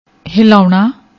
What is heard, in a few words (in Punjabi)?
"hilauna", Punjabi; ਹਿਲਾਉਣਾ